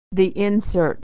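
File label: "the insert", American English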